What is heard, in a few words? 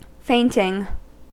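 "fainting", American English; pronunciations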